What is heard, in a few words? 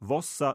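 "vossa", Romansh sursilvan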